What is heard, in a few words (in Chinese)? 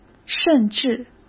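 "甚至", Mandarin Chinese; hsk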